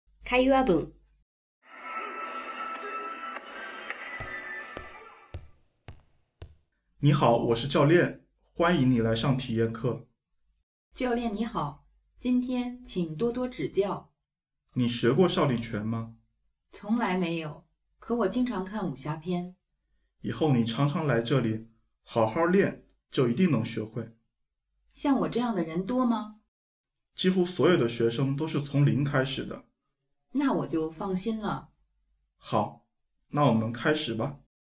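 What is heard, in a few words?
conversation; 会話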